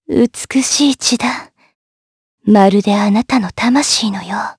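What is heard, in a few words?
vox dialogue, voices, Japanese; Lewsia; kingsraid; jp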